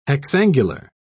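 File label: "hexangular", English, British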